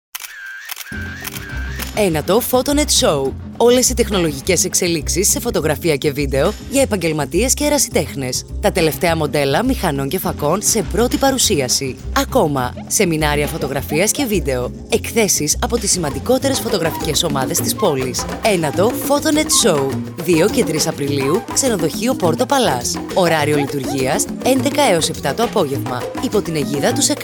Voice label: Radio Spot; 9th Photonet Show